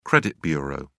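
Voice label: "credit bureau", British English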